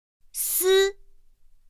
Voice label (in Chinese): "sī", pinyin; pinyin2; pinyinji